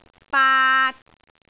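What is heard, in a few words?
"baat3", Cantonese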